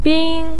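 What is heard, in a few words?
"bin" first tone, Mandarin Chinese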